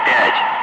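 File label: Russian speech, Ka-50 Wingman; Digits; DCS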